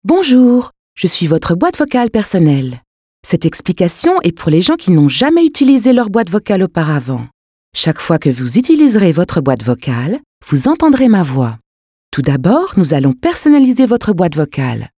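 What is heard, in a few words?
French telephone; telefon; sprecher; franzoesisch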